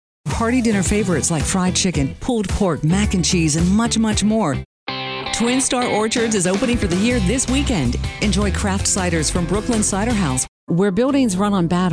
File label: Commercial